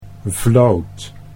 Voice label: "vloot", Dutch